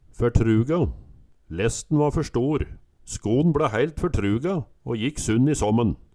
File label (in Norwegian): "fertruga", Numedalsmål